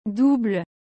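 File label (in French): "double", French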